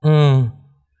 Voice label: "uh", male